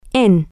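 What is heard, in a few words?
at in on, Spanish, female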